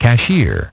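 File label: "cashier", English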